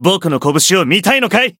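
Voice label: encounter, Japanese; nero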